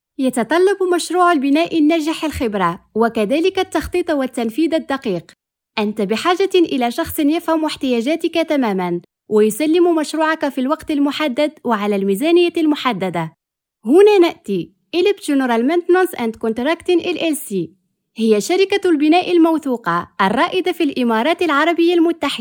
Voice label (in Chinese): 阿拉伯语女 外语 小语种